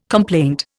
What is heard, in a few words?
"complaint", Indian